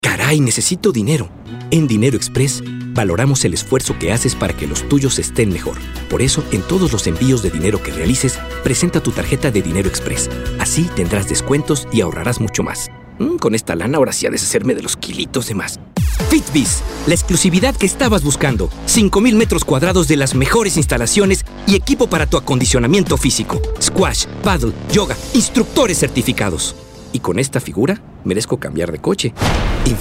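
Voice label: latam mexican male commercial; voice demos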